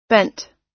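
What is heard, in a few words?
"bent", US English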